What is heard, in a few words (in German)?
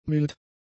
"mild", German; adjectives